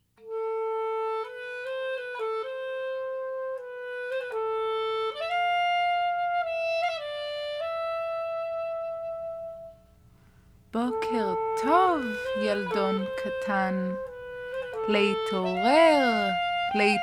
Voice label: Hebrew